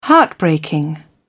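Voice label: "heartbreaking", British English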